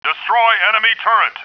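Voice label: male destroy turret; Starsiege; Tribes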